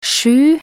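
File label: "sju", Norwegian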